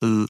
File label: phoneme lion, American; American IPA phonic